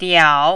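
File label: "diao", third tone, Mandarin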